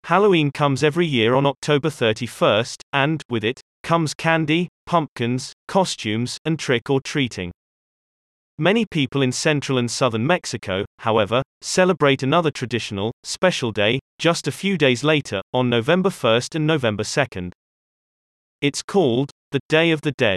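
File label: British; mexico day dead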